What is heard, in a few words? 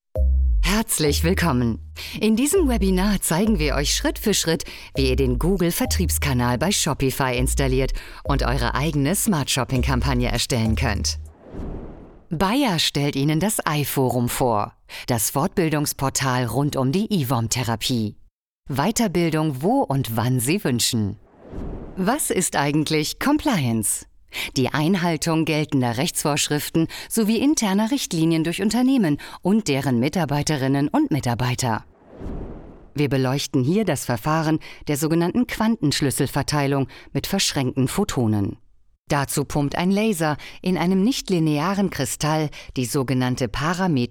eLearning